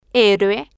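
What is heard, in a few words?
"heroe", Spanish, female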